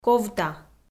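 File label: "ковта" Komi, female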